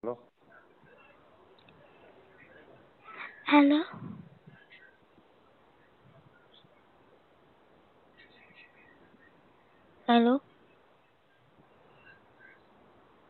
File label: Call recording Emergency number